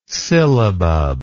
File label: "sillabub", words